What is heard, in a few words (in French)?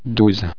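"douze", French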